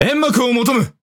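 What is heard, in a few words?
Speech Quick Commands Need Smoke, Japanese; World Of Warships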